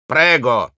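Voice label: thanks, Russian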